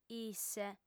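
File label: "isse", female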